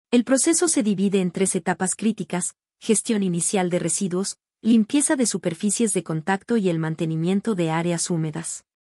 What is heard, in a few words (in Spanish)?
locucion